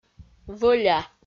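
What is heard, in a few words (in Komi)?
"вӧля", Komi, female; audio dict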